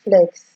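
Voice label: "flex", Nigerian Pidgin, female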